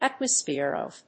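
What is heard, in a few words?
"atmosphere of", English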